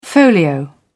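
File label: "folio", British English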